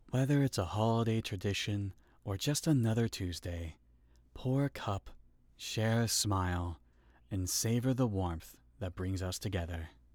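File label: Commercial Warm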